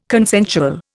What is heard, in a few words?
"consensual", Indian